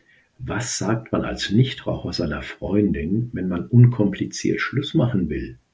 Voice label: German

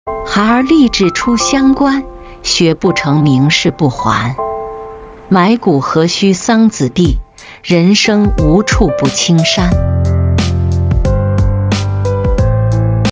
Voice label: chinese

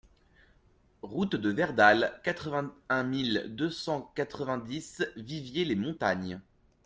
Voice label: french

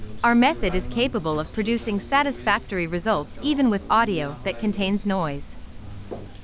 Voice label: noisy audio meeting snr 10

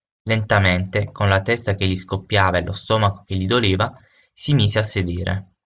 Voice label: italian

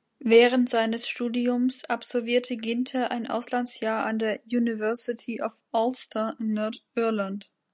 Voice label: German

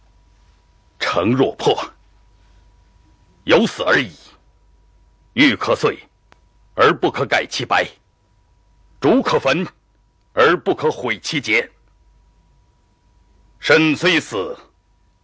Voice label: chinese; guanyu

